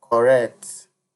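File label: "correct", Nigerian Pidgin, male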